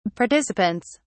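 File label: "participants", British English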